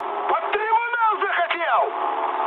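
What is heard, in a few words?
player killed ally, mood high, Russian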